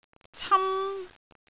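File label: "cam1", Cantonese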